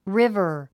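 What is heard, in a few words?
"river", English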